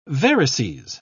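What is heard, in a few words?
"varices", British English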